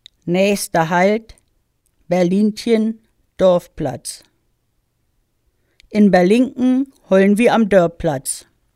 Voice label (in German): Busansage Berlinchen Dorfplatz, plattdeutschen Ansagen